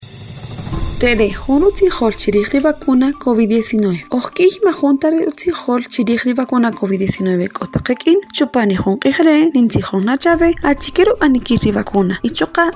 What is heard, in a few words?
SPOT KAQCHIKEL